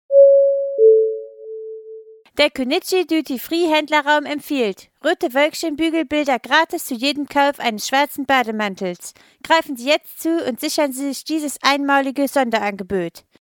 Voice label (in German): durchsage